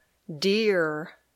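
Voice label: "deer", English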